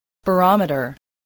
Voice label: "barometer", English

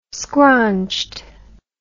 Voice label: "scraunched", English

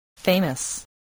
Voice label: "famous", voice

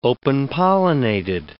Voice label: "open-pollinated", English

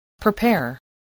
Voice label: "prepare", voice, spelling

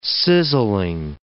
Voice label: "sizzling", English

